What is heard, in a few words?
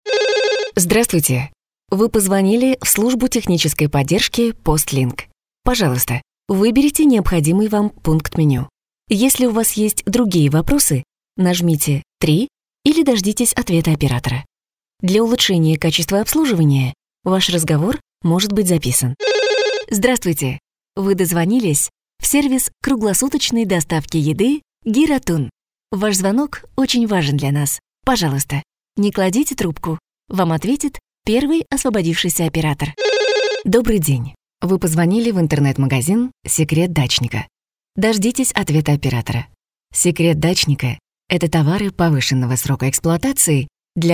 IVR